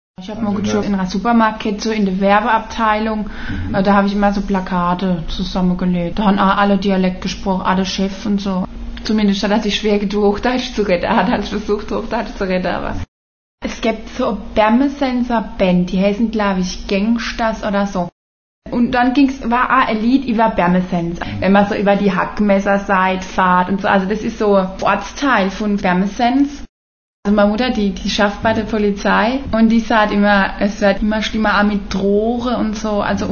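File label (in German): Pfälzisch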